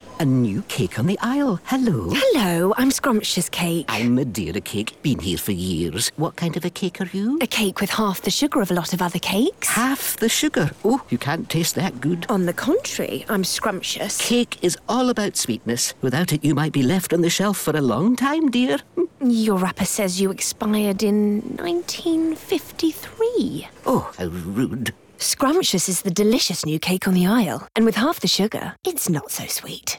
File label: radio; Scrummmptious